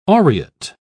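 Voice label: "aureate", British English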